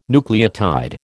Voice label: "nucleotide", American